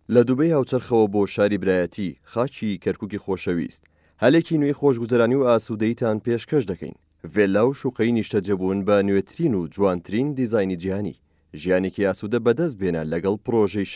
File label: kurdish sorani male voiceover; Kurdish sorani voice over talent